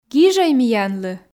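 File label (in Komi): "гижӧй миянлы", Komi, female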